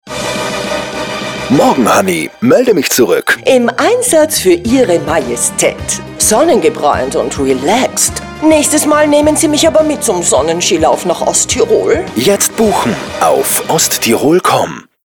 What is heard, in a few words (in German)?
Radiospot; Osttirol; Bond